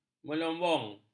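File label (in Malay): "melombong", Malay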